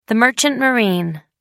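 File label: "merchant marine", British English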